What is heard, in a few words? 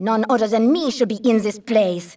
female; baldurs1